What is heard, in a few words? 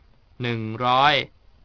ONE HUND, THAI